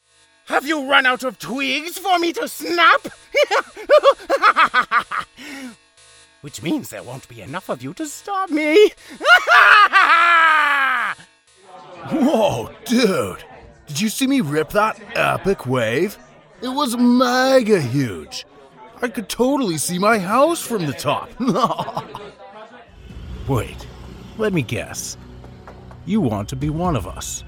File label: Character